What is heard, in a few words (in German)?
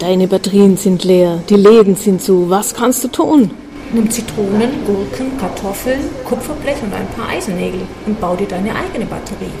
digilern werbetext chemie